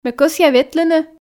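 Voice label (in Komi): ме кӧсъя ветлыны, Komi, female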